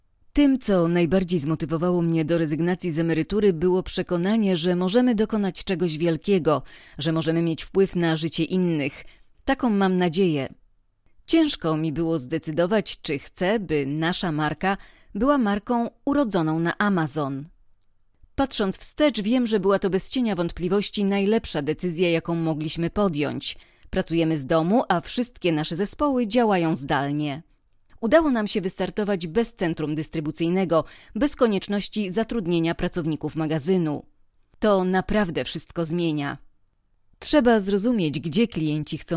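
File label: Polish, Commercial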